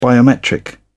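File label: "biometric", British English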